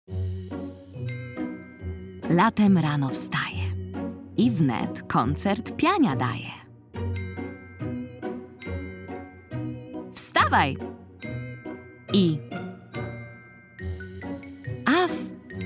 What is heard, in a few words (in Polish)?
narracja; czasopismo mis; lato; wiejska orkiestra